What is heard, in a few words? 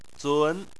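"zun" second tone, chinese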